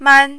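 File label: "man", Mandarin, first tone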